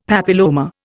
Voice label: "papilloma", Indian; Dictionary